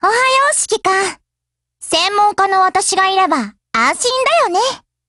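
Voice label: HELLO, Japanese; GF Alarm; NEGEV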